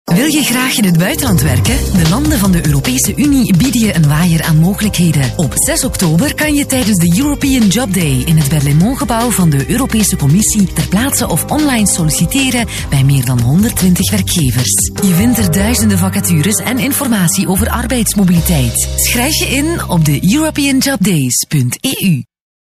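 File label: flemish female commercial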